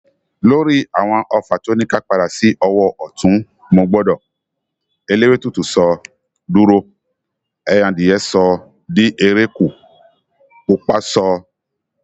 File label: YORUBA